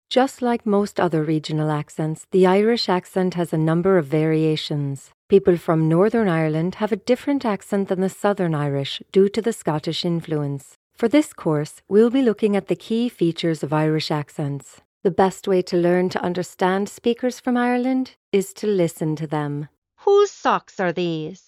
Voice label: female english american and irish irish accent e learning; voices; devine voices